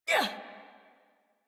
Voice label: Adult Operator Jump, en; Warframe; New War

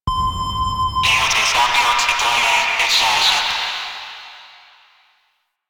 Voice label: Ship Announcer

